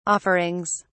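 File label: "offerings", British English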